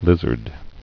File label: "lizard", English